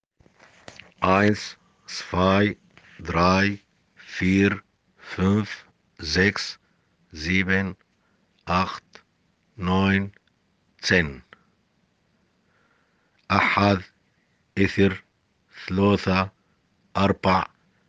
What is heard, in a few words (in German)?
zahlen 1 10 aramaeisch